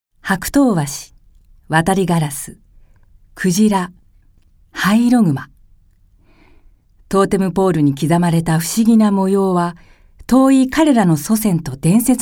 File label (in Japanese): 教養番組